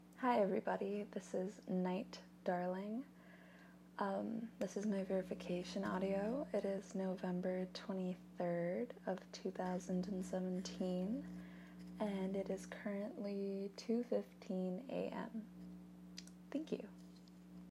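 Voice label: Female verification; GWA Verification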